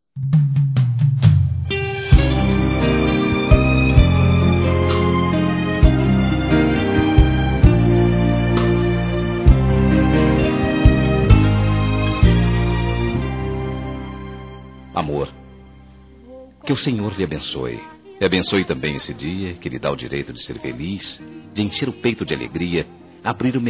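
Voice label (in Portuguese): Esposa Evangélica Masculino; Aniversários; ANIVERSARIO romantico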